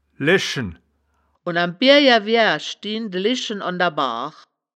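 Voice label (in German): "leschen", Wittlicher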